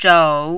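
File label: "zhou3", mandarin, dipping tone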